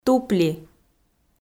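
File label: "тупли", Komi, female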